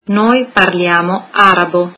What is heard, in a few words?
we speak Arabic, italian